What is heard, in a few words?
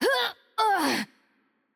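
Russian hurt heavy voice; bloom minigame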